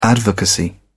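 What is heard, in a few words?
"advocacy", British English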